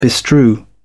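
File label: "bestrew", British English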